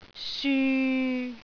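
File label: "syu6", Cantonese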